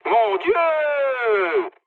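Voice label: French trick mood high; War Thunder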